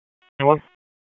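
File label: Police Dispatch; Raleigh; Northeast District; p25; wake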